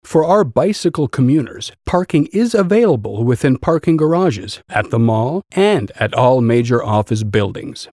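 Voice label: English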